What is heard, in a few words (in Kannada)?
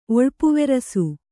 "ಒಳ್ಪುವೆರಸು", Kannada